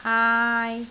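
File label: "aai3", Cantonese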